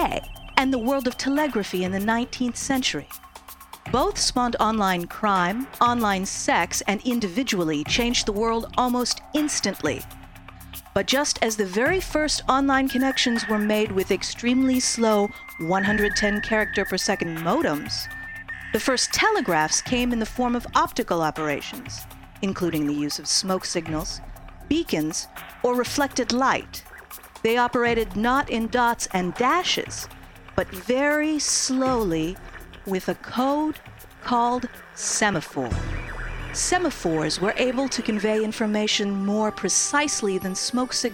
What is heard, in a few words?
narr